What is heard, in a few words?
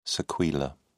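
"sequela", British English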